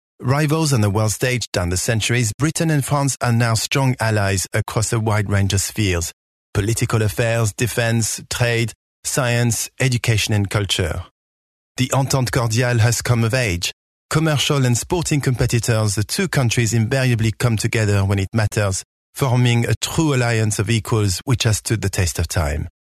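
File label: French, male, English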